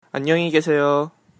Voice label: goodbye, Korean

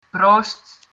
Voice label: "cheers", Dutch

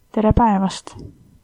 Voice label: good afternoon, estonian

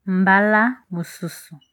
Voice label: maybe, lingala

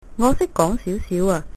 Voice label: a little, cantonese

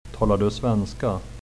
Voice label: do you speak, swedish

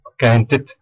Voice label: i have no time, west flemish

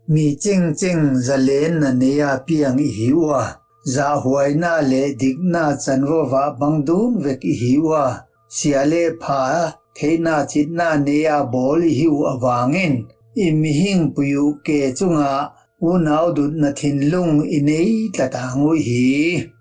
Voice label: udhr ralte

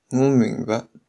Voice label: understand, shanghainese

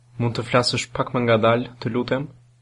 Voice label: slowly, albanian

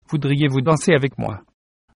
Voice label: dance, fr

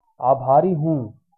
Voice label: thanks, hindi

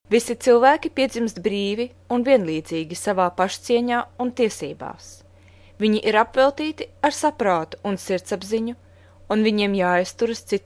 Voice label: udhr latvian

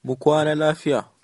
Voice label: good night, hausa

